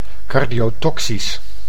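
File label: "cardiotoxisch", Dutch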